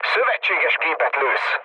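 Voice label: Hungarian player friendly fire mood low, speech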